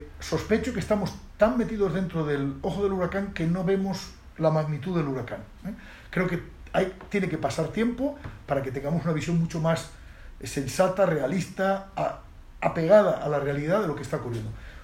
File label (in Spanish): Entrevista audio